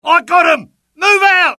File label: suppress, dialogue UK; mohaa